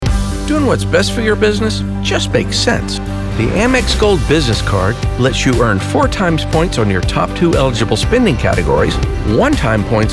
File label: Credit Card Commercial